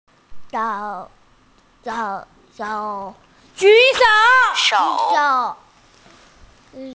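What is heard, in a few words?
"ju3shou3", Mandarin Chinese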